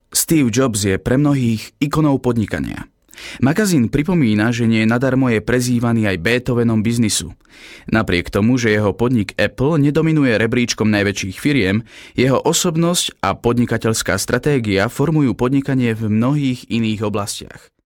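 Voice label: Slovak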